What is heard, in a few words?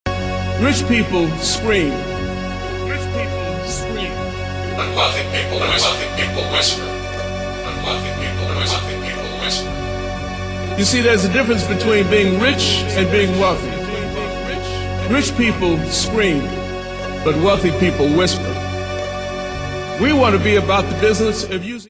Wealthy People Whisper